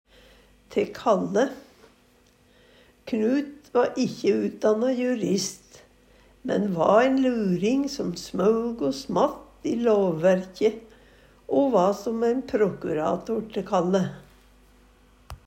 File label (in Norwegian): te kalle, Numedalsmål; Knut va ikkje utdanna jurist, men va æin luring som smaug o smatt i låv-verkje